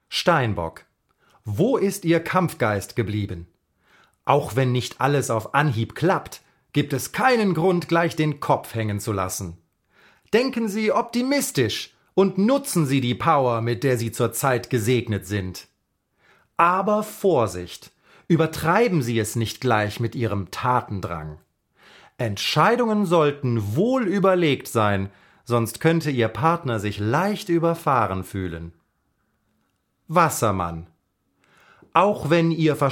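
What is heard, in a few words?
German Narration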